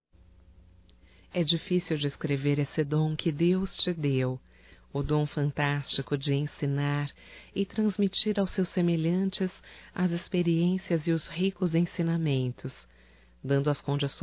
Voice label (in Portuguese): Dia Professor Feminino; Datas Mistas; Telemensagens 2017